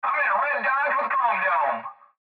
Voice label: Cb Trucker Radio; Radio Voice; Blastwave